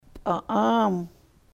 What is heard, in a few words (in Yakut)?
"p'a'aam", sahaptin